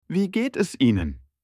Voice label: how are you in german